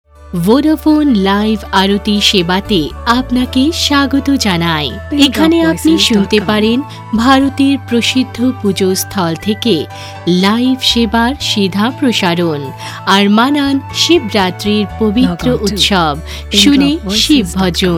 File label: Bengali